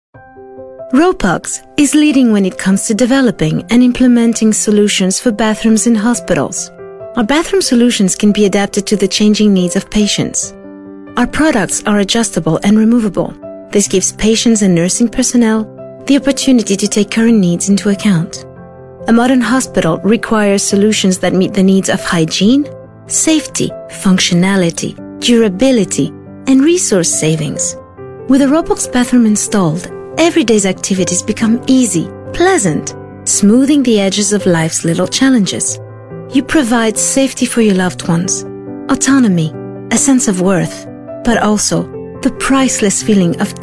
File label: english corporate